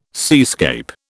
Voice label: "seascape", British